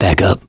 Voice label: backup quiet, voice, mechanic